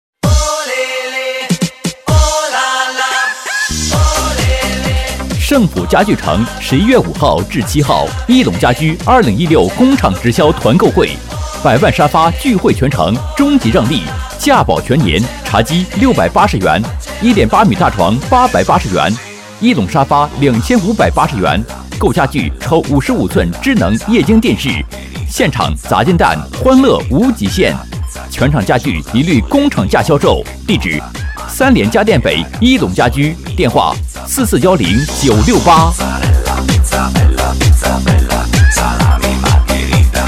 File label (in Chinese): 家具城 男 磁性激情; 促销; 圣府